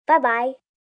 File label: Bubye Child, Goodbyes, child, girl; Greetings And Goodbye